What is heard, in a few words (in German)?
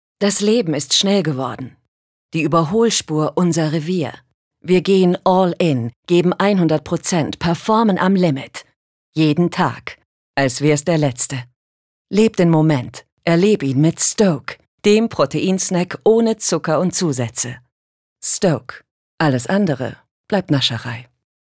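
advert, Cool; Stoke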